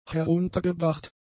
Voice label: "heruntergebracht", German; adjectives

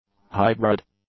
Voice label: "hybrid", English; adjectives

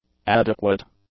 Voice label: "adequate", English; adjectives

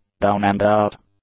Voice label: "down-and-out", English; adjectives

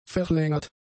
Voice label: "verlängert", German; adjectives

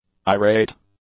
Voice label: "irate", english; adjectives

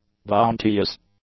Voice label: "bounteous", English; adjectives

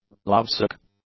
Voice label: "lovesick", English

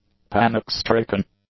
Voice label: "panic-stricken", English; adjectives

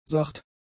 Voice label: "sacht", german; adjectives

